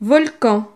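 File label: "volcan", French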